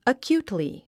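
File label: "acutely", English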